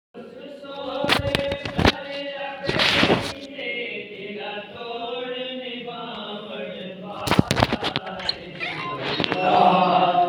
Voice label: Call recording